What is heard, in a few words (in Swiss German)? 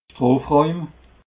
"strofrauem", alsace